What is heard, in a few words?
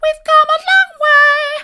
we've come along way soft; vocal